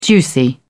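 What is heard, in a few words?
"juicy", British English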